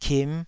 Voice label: "kim5", taiwanese syllables